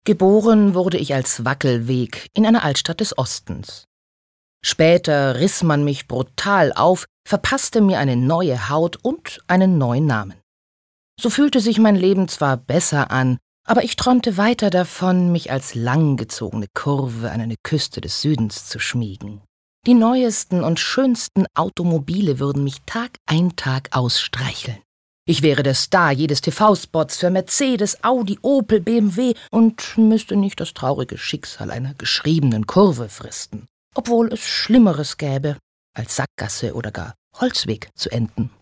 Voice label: Schauspiel Neutral

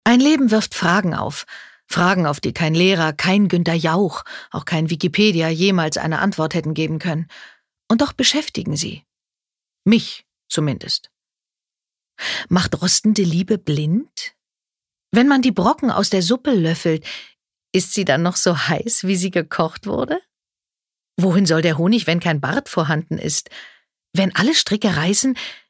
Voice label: Schauspiel Variabel

